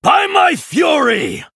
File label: Male Night Elf Attack